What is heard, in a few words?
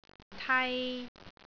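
"tai3", Cantonese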